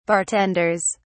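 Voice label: "bartenders", British English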